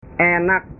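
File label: "enak", Indonesian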